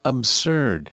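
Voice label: "absurd", USA, male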